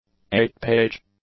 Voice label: "eight-page", English; adjectives

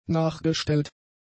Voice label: "nachgestellt", German; adjectives

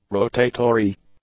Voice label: "rotatory", English; adjectives

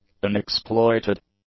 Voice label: "unexploited", english; adjectives

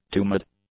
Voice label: "tumid", English; adjectives

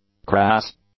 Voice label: "crass", English; adjectives

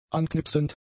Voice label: "anknipsend", German; adjectives

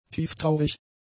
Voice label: "tieftraurig", German; adjectives

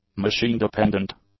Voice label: "machine-dependent", English; adjectives

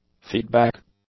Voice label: "feedback", English; nouns

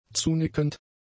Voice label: "zunickend", German; adjectives

